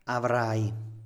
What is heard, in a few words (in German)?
"avrai", Italienisch; Diphthonge; Standard-Italienisch; du wirst haben